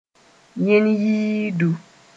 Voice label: "yẽn-yĩidu", Mooré

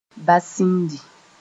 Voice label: "basĩndi", moore; bowl

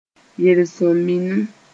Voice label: "yel-sõm-minim", Mooré

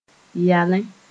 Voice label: "yalẽ", Moore

